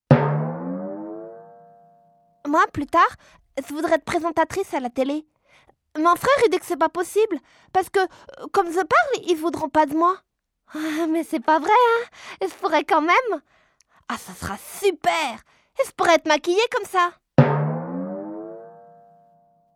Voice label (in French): Zozotte